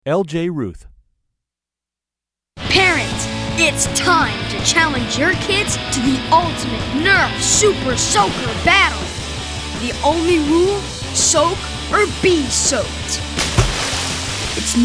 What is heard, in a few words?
VO Tween Teen Male